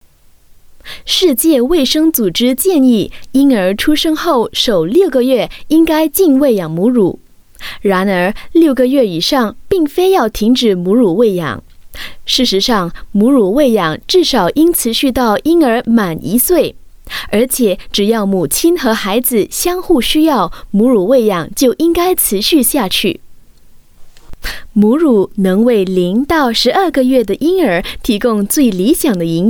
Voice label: Mandarin Technical, Mandarin China Female

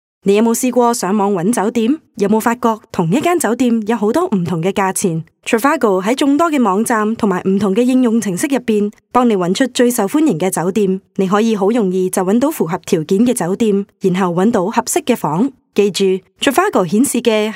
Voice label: Cantonese Online Spot Clean VO

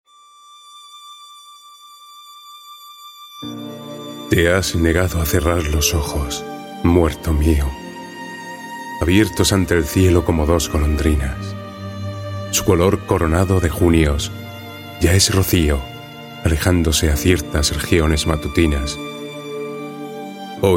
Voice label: Euro Spanish Narration

